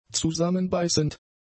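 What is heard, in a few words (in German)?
"zusammenbeißend", German; adjectives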